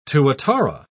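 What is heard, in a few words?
"tuatara", British English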